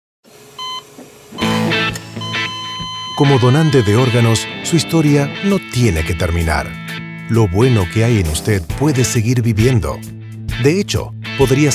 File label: spanish radio; Good In You Radio - 60 second - Spanish; organ donor